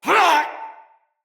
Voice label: Attack, Battle, Soldier Male; Barks; Dialog; Dendrit